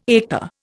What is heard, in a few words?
"eta", Indian